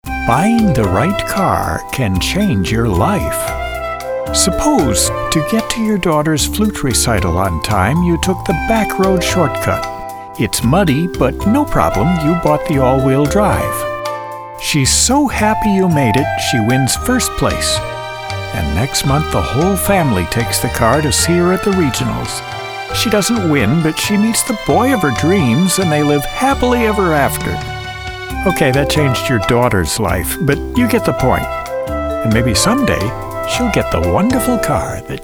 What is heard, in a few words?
Story Video